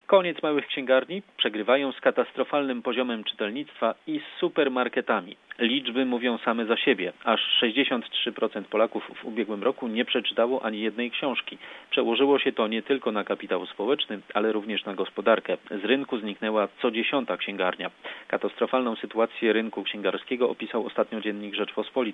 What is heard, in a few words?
polish; SBS Polish; Reading takes too much energy …….Really ?; The level of readership in Poland is not good